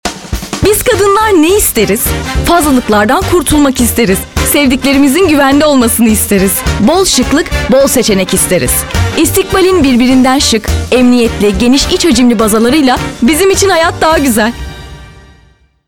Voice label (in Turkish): reklam; istikbal